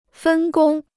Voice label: "分工", Chinese

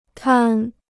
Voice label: "摊", Chinese

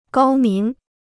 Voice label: "高明", Chinese

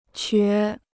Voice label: "瘸", Chinese

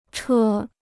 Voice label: "车", Chinese

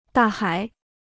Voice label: "大海", Chinese

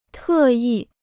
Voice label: "特意", Chinese

